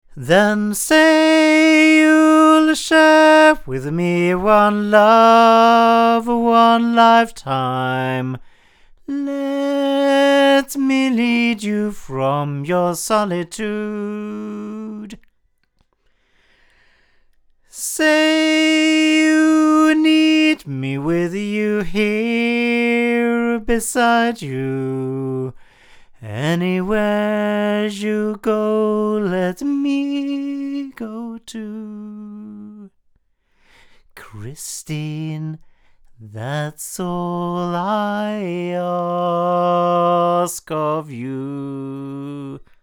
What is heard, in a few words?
CV-28 hypercardioid male; Avant